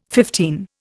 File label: "fifteen", Indian